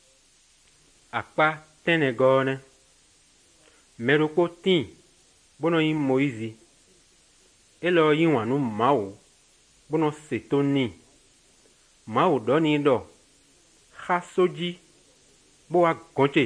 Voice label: good news, fon fongbe bible drama